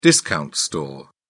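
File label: "discount store", English, British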